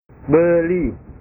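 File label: "beli", Indonesian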